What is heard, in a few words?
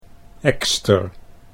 "ekster", Dutch